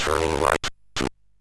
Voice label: MOS6581R3, Electronic Speech Systems